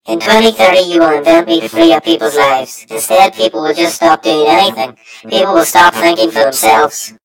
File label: story voiceover; yumpod